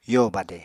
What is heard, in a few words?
"yo badè", Apatani